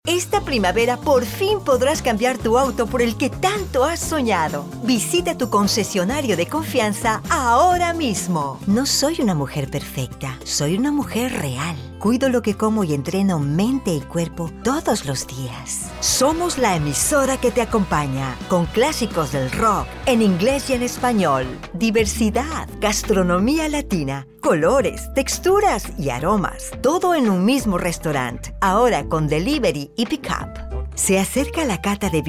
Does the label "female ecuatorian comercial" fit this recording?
Yes